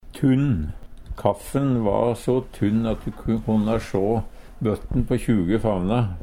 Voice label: "tunn", Numedalsmål; Kaffin va tunn.; Adjektiv

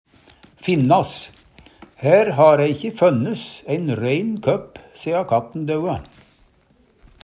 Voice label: finnas, Numedalsmål; Hær ha ræ ikkje fønnes ein rein køpp sea katten daua.

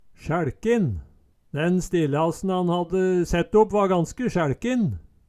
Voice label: "sjæLkin", Numedalsmål; Eksempel på bruk Den stillasen han hadde sett opp va ganske sjæLkin

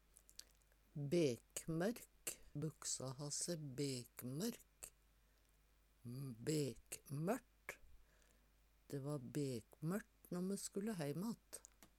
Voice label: bekmork, Numedalsmål; bekmørt - heit utan sikt, kveld, natt

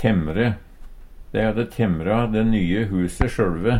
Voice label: temmre, Numedalsmål; Verb; Handverk (metall, tre, lær); Dei hadde temmra de nye huse sjøLve.

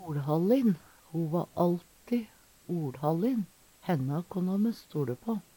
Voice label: "oLhallin", Numedalsmål; Veggli; Adjektiv

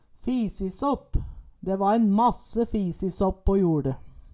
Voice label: fisisåpp, Numedalsmål; De va en masse fisisåpp på joLe

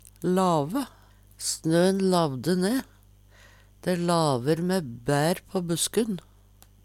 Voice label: lave, Numedalsmål; Snø`n lavde ne. Dæ laver mæ bær på buskun.

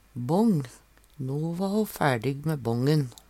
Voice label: bang, Numedalsmål; No ha e strikka bången, so no æ dæ værste att.

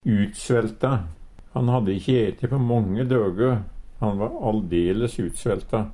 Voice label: utsvelta, Numedalsmål; Han hadde ikkje ete på månge døgø, han va aldeles utsvelta.; Adjektiv

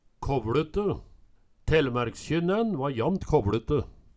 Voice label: kåvLute, Numedalsmål

